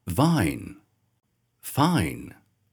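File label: "vine" "fine", English pronunciation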